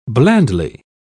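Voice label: "blandly", British English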